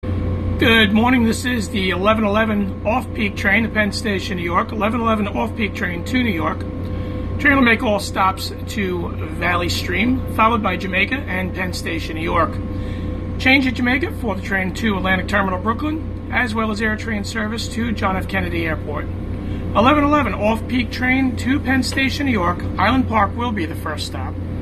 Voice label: M7 announcement, trains; Long Beach Jamaica NY Penn